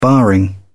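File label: "barring", British English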